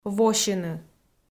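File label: "восьыны", Komi, female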